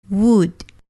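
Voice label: "wood", English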